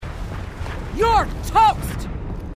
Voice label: female marine

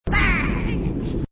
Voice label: burn, grunts dialogue

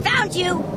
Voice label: grunt; h3dialogue; staten

